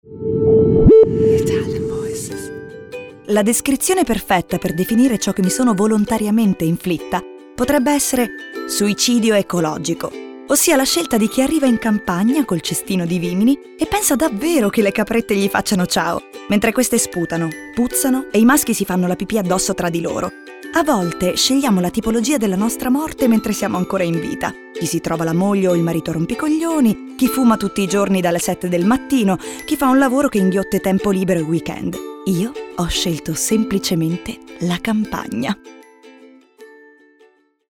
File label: ITALIAN VOICES Monologue Ironic